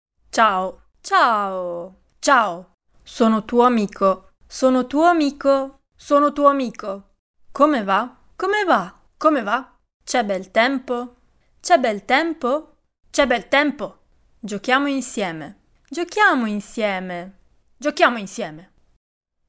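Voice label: vox Italian woman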